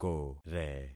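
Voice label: "kore", concatenated syllables; Affixing experiment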